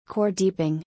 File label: US Woman